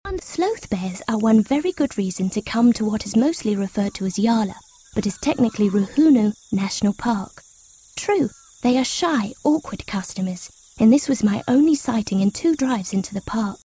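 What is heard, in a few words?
English Australian, Narration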